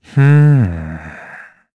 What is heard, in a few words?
Think, kr; Lusikiel; kingsraid